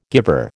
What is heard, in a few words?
"guiver", American